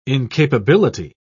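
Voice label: "incapability", British English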